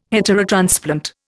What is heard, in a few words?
"heterotransplant", Indian